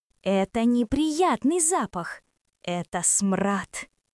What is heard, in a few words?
Russian, russian phrases insults; ロシア語のネガティブなフレーズ